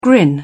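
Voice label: "grin", British English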